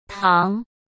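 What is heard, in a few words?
"堂", Mandarin Chinese; chinese-flashcards; hsk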